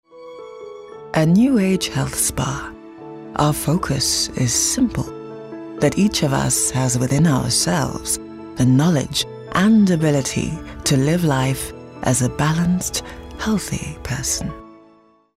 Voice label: softspoken